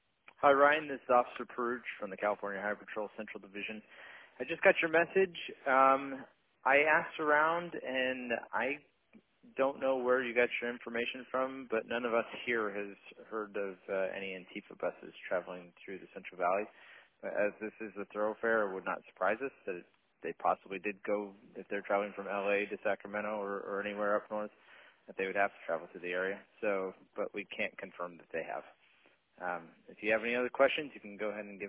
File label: Voicemail